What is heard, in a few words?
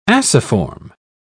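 "aciform", British English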